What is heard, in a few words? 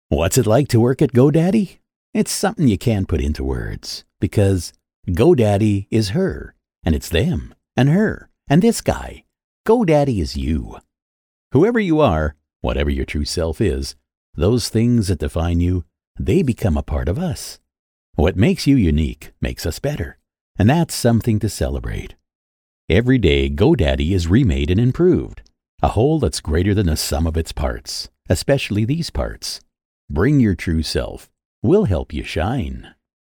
english american promos